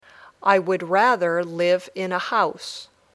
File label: Dictation